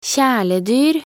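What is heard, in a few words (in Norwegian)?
"kjæledyr", Norwegian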